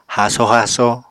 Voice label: "haaso haaso", Apatani

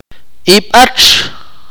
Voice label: "armadillo", Akateko

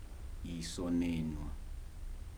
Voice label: "sad", Kibembe

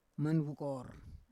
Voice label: "manvukor", Roon

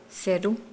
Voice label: Nukuoro; comb

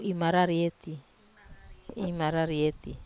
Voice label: "mararieti", Wamesa

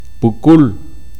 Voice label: "dust", K'iche'

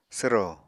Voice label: "swro", Apatani

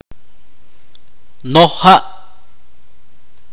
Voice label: "lago", itza

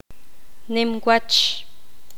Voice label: poqomam; ancho

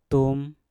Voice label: "tum", Mpur; anak panah

